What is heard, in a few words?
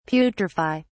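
"putrify", British English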